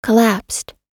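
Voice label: "collapsed", American English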